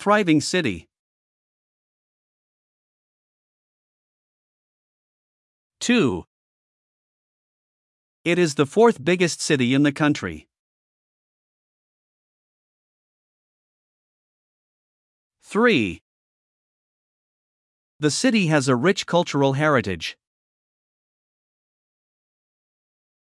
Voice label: listen repeat